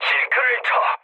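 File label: Korean bailout mood low, speech; War Thunder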